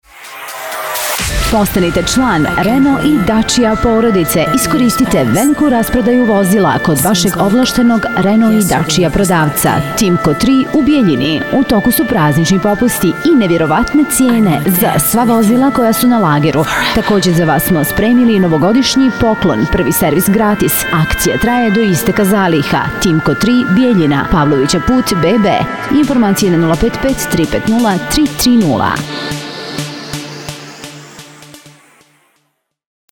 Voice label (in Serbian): reklama audio